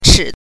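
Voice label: "chi", Mandarin Chinese pinyin, neutral tone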